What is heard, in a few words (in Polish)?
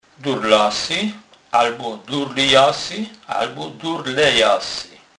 "durlasy", kurpiow